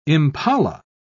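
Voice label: "impala", British English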